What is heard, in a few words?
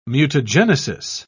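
"mutagenesis", British English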